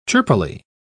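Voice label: "chirpily", English, British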